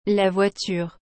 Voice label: "La voiture", French